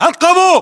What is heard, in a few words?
location basement generic, battle chatter, english